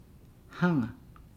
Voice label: "hanga", Nukuoro; coconut husker

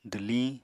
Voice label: "dwliñ", Apatani

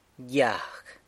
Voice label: "gyag", San Lucas Quiavini; stick

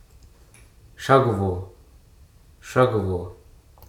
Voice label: "Pallas Squirrel", Hruso

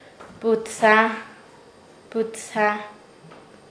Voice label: "soplador", Nasa Yuwe; paez

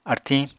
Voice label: "artiñ", Apatani

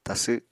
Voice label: "tasw", apatani

